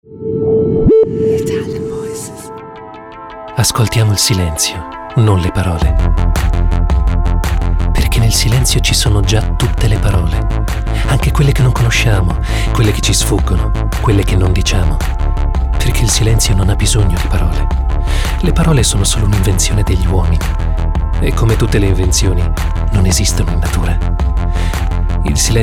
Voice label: ITALIAN VOICES, male voices; Naked Reel